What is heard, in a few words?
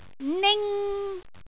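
"ning1", Cantonese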